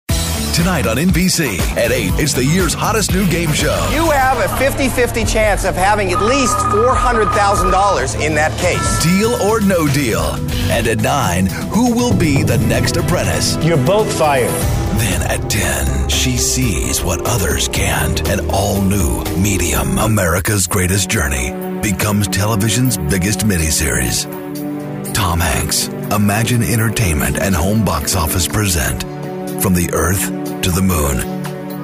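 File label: Promo, Voiceover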